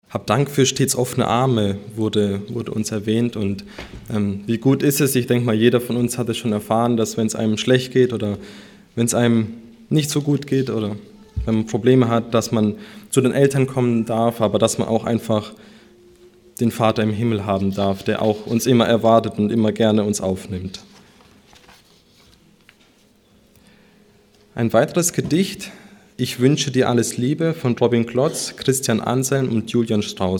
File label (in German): Ansage